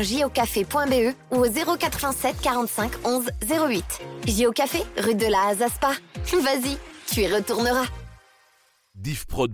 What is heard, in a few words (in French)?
Spot Cafe Coffee Lunch, publicite